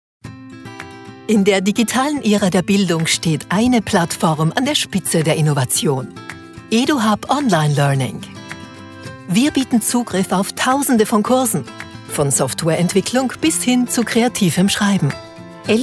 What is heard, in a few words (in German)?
Imagefilm